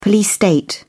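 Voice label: "police state", British English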